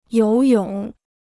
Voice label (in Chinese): "游泳", Chinese